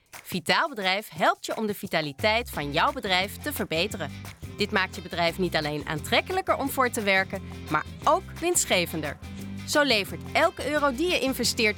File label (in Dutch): Radiocommercial; Vitaal Bedrijf